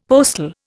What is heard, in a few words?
"postil", Indian; Dictionary